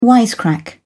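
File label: "wisecrack", English, British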